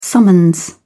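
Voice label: "summons", British English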